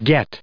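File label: "gets", American English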